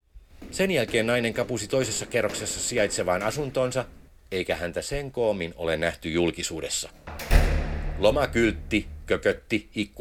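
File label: Finnish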